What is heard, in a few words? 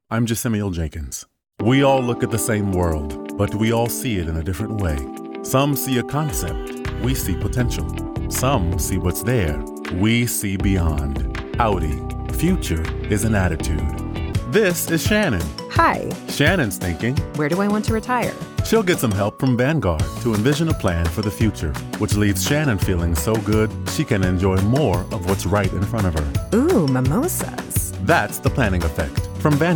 commercial